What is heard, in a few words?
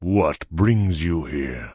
Tauren Male Elder NPC Greeting